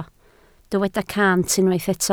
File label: "cant", Welsh